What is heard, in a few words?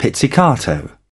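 "pizzicato", British English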